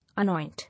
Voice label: "Anoint", English

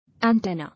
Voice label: "Antenna", English

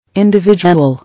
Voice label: "Individual", English

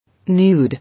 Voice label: "Nude", English

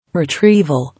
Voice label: "Retrieval", English

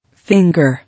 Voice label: "Finger", English

